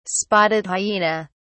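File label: "spotted hyena", British English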